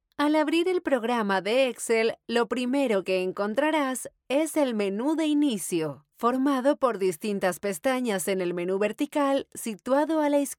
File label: spanish latin american e-learning